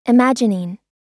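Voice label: "imagining", US English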